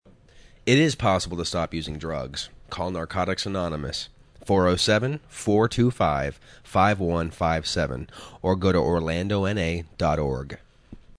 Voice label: Male